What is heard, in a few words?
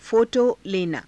"pho_to_lenaa", Hindi